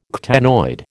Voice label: "ctenoid", American